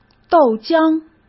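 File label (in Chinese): "豆浆", Mandarin Chinese; hsk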